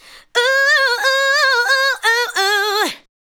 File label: ADLIBS 90; Ueberschall; Jam Box